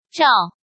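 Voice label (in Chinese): "Zhao", Chinese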